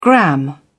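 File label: "gram", English, British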